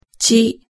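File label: "chi", Japanese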